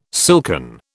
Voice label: "silken", British